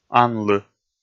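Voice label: "ан лы", Komi, male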